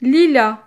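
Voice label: "lilas", French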